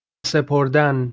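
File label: "sepordan", Persian, male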